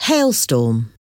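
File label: "hailstorm", English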